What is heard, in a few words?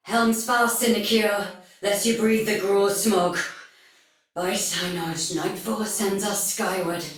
Patrol Spoken Soldier Female; Dialog; Sinecure